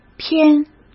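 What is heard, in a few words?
"偏", Mandarin Chinese; chinese-flashcards; hsk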